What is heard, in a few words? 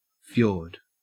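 "fjord", Australian English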